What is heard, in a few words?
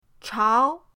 "chao2", pinyin